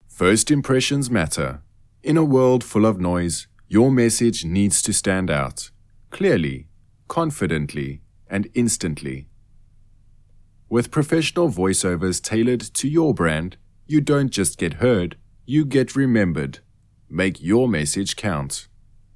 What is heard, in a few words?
Commercial Clean Proffesional